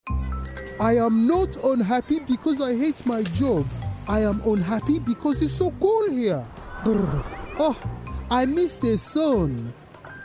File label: Animation French African